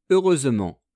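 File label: "heureusement", French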